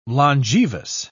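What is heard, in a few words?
"longevous", British English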